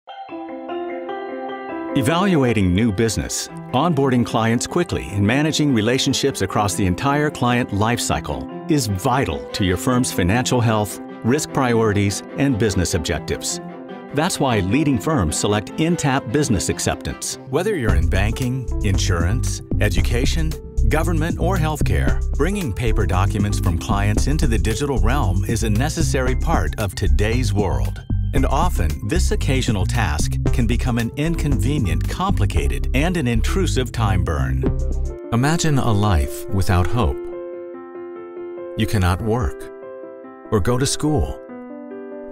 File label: Male Narration